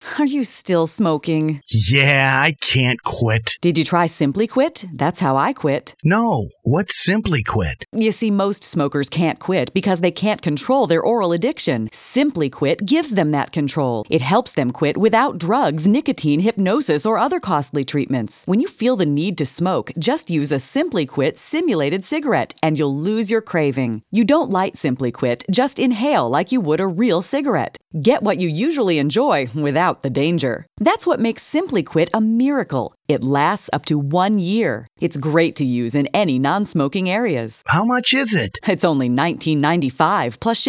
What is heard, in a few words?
radio commercial